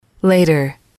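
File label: "later", English, female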